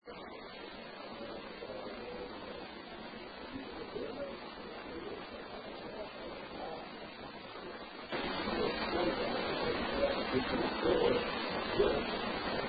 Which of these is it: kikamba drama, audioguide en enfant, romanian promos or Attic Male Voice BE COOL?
Attic Male Voice BE COOL